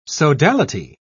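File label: "sodality", British English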